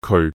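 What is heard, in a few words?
"keoi4" Cantonese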